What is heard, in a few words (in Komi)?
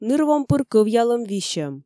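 "ныр-вом пыр кӧвъялӧм висьӧм", Komi, female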